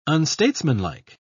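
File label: "unstatesmanlike", British English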